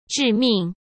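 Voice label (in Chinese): "致命", Mandarin Chinese; hsk; chinese-flashcards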